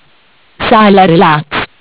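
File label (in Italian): "Sala relax", Italian; Bentel; BLE320; MESSAGGI VOCALI; Audio BGS220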